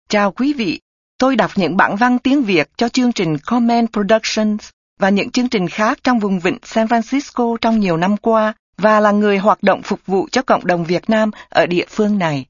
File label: vietnamese